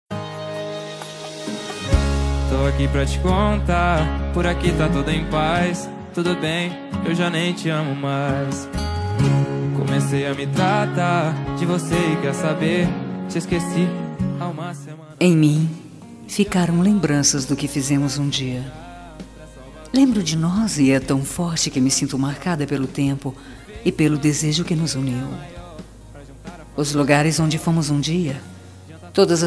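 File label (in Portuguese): SAUDADES PARA EX female, Telemensagem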